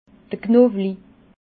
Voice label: "knowlig", alsace

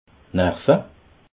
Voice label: "nerve", alsace

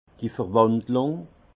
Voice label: "verwandlung", alsace; vin; mutage

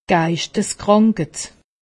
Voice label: "geistiskrankeit", alsace; medecine

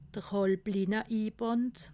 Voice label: "halblinne", alsace

